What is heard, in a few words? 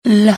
"lam", Arabic